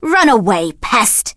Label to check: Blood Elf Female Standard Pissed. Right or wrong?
Right